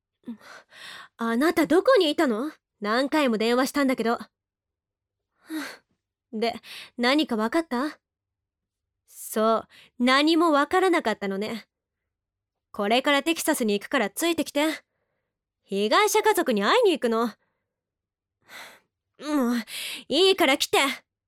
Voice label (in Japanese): 外画